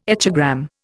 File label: "echogram", Indian